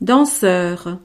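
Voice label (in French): "danseur", French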